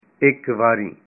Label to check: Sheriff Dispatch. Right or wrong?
Wrong